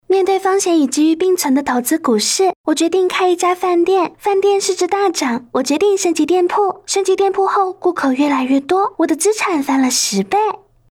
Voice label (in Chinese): 台湾腔游戏解说